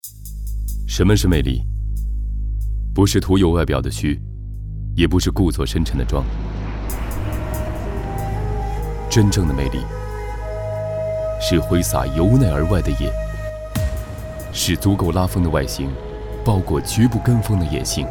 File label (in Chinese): 广告 汽车, 男国; 马自达